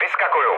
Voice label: bailout, speech cze, mood low; War Thunder

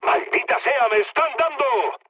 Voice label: aircraft damaged, mood med, speech; War Thunder

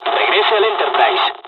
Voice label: evac, dialogs spanish; midway; War Thunder

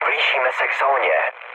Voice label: near waypoint, speech cze, mood low; War Thunder

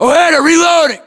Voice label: inform reloading, US

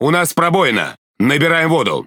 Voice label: Speech Alarms Critical Flooding, RU; World Of Warships

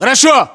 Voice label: response ack norank yes, battlechatter ru

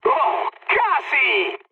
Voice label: bomb failure, mood high, speech; War Thunder

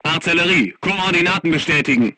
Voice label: voice message art command, crew tanks german

